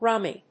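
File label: "rummy", English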